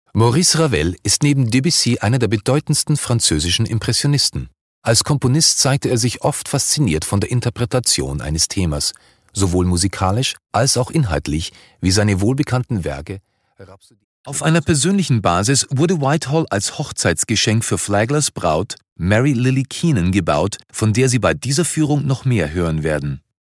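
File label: German, male, narration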